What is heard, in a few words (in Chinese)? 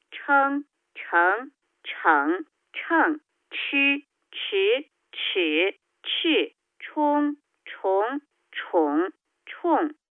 Phone Words, mandarin; angelsound